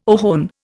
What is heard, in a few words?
"ohone", Indian